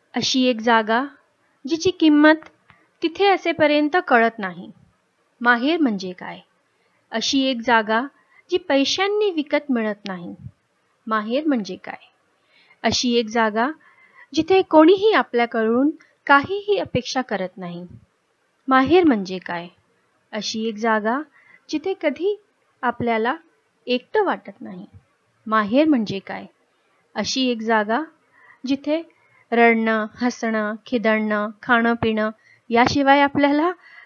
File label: narration marathi